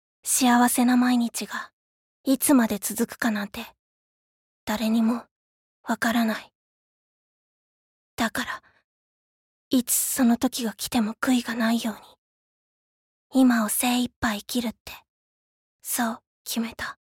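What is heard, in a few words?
voice sample, mysterious girl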